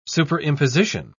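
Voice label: "superimposition", English, British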